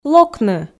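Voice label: "локны", Komi, female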